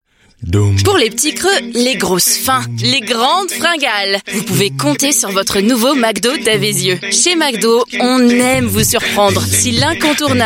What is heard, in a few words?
French; McDonalds